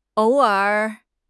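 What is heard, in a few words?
"ou3 er3", Mandarin Chinese; HSK4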